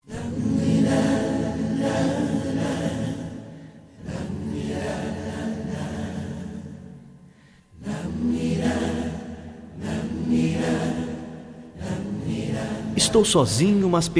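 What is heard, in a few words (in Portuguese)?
reconciliacao masculino; Telemensagens; ROM RECONCILIACAO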